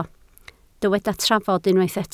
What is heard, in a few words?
"trafod", Welsh